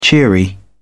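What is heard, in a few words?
"cheery", British English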